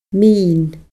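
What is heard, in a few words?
"min", Scottish Gaelic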